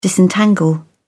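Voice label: "disentangle", British English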